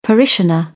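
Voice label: "parishioner", British English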